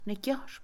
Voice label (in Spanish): Mixe, Mujer; leer; Habla; INALI